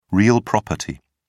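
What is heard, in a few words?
"real property", British English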